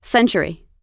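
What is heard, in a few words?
"century", American English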